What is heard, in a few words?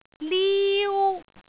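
"liu1", Cantonese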